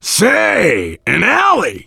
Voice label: directional, voice, biker

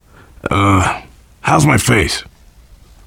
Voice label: getting revived, voice, biker; Left 4 Dead 2; survivor

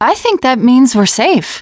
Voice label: second saferoom, teengirl, voice

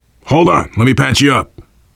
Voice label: heal other, voice, biker; Left 4 Dead 2; survivor

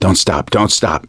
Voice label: emphatic go quiet, survivor voice; gambler

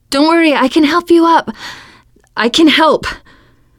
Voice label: revive friend, teen girl

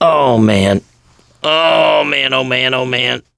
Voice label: going to die, survivor voice mechanic

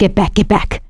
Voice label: backup quiet, survivor voice; producer

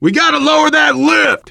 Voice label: finale setup, voice, biker; Left 4 Dead 2; left4dead2 dlc2; survivor

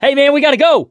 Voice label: emphatic go, voice, mechanic

